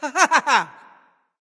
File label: grunt; smite; apollo